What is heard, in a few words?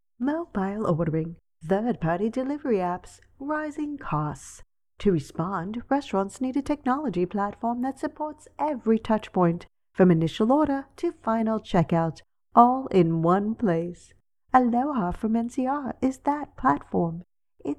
Brit explainer